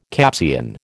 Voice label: "Capsian", American